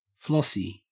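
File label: "flossy", Australian English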